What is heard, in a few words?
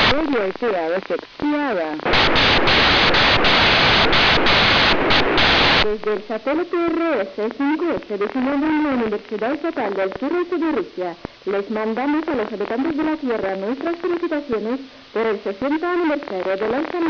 spanish